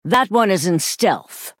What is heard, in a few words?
female night elf error stealth